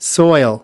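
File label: "soil", English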